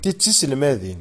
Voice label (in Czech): berberština